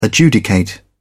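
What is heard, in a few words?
"adjudicate", British English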